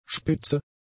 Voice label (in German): "spitze", german; adjectives